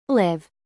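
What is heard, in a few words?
"live", verb, British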